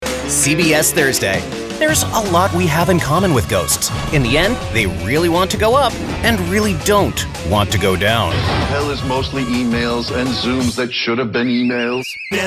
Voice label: Bright Quirky Dynamic; Ghosts